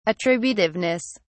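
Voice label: "attributiveness", British English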